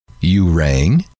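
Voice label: you rang, male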